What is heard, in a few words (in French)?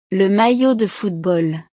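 "le maillot de football", French; la camiseta deportiva; ropa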